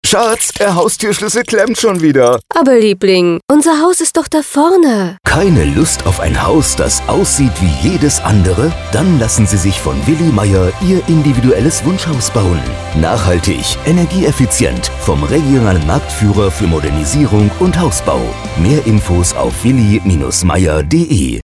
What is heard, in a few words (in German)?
Funkspot; Willi Mayer Holzbau